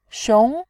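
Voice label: "xiong", Mandarin Chinese, tone 2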